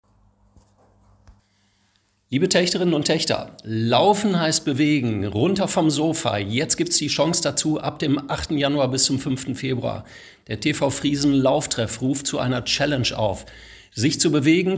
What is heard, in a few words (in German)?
Aufruf Challenge